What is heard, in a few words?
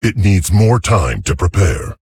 Male Tauren ERROR SUMMON SICKNESS